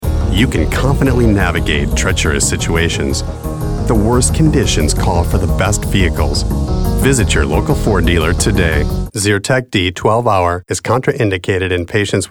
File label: commercial